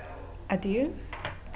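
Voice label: "adieu", British English, female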